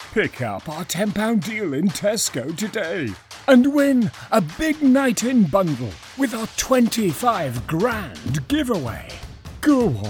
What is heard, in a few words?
english british promos